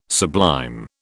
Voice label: "sublime", British